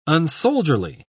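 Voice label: "unsoldierly", British English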